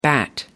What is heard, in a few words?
"Bat" english